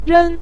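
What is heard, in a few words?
"ren", Mandarin Chinese, neutral tone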